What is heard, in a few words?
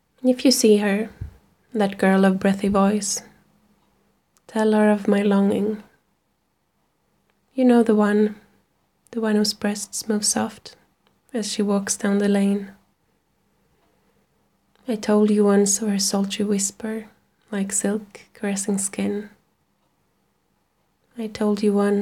erotic poetry, F4F; Tell her